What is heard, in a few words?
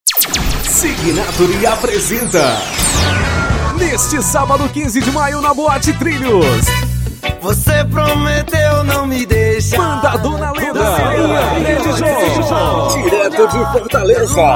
spot evento